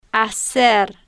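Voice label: "hacer", slow, female, Spanish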